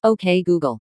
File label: "ok g", us female; Android 10.0.0 r40; openhst